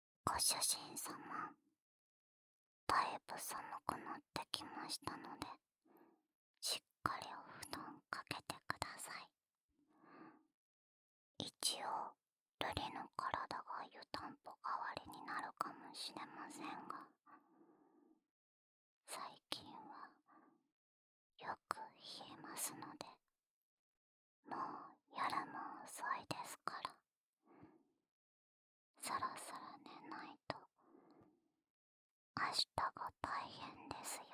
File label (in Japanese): 一緒に添い寝, ASMR